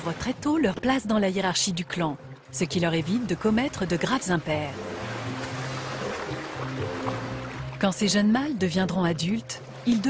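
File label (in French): Documentaire Le royaume des hippopotames, Voix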